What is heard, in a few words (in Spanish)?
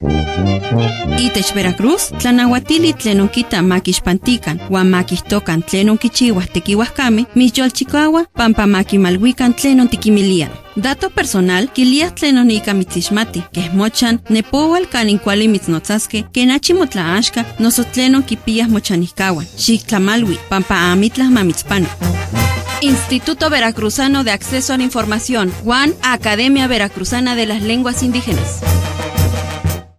nahuatl spot